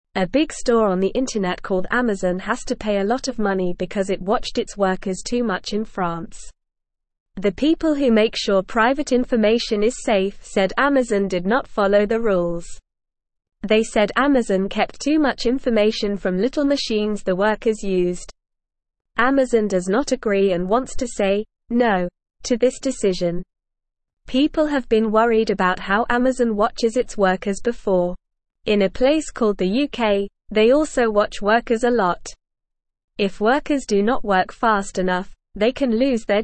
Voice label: Beginner NORMAL Reading, English; English Newsroom